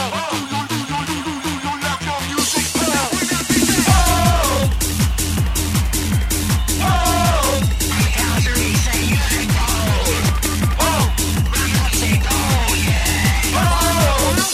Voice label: Music Pole Rap